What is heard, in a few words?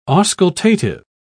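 "auscultative", British English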